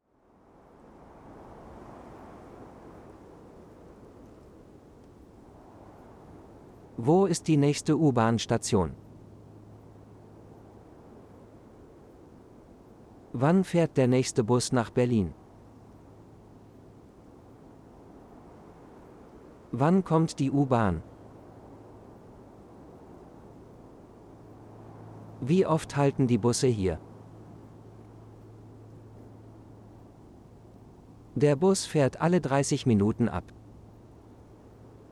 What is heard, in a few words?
Relax Wind Phrases, de-en; Using Public Transport